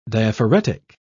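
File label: "diaphoretic", British English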